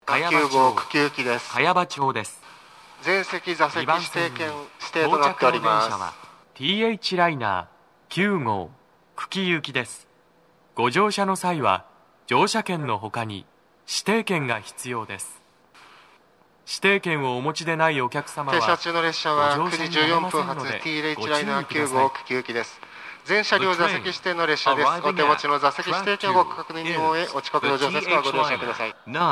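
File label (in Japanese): 茅場町駅, 2番線 到着放送, 男声, TOA天井型での収録です, 駅員放送が被っています; 放送は「THライナー 9号 久喜行」です。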